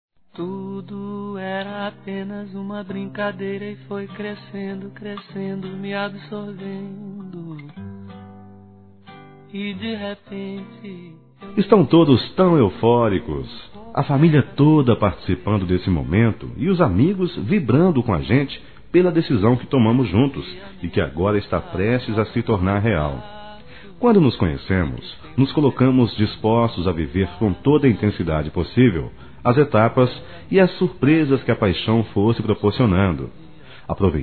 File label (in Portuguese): Nosso Casamento Masculino; Telemensagens; Parabenização